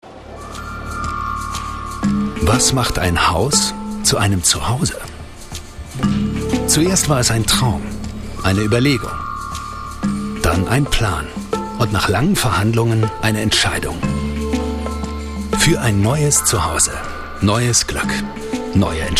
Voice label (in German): Schauspiel Sanft